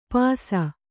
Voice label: "ಪಾಸ", Kannada

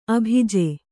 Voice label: "ಅಭಿಜೆ", kannada

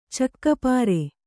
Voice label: "ಚಕ್ಕಪಾರೆ", kannada

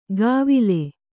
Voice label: "ಗಾವಿಲೆ", Kannada

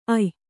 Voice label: "ಅಯ್", Kannada

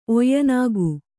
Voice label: "ಒಯ್ಯನಾಗು", Kannada

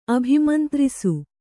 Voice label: "ಅಭಿಮಂತ್ರಿಸು", Kannada

